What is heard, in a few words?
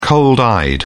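"cold-eyed", British English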